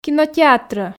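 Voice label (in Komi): "кинотеатрӧ", Komi, female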